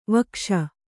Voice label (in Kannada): "ವಾಗೀಶ", Kannada; vakṣa; ವಕ್ಷ; noun; the chest